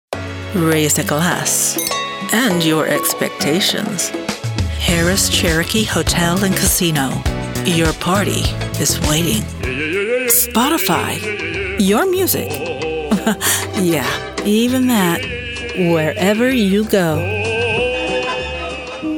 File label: Commercial; Demo